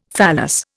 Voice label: "phallus", Indian; Dictionary